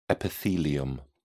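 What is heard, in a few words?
"epithelium", British English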